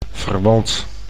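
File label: "verwant", Dutch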